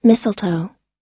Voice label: "mistletoe", US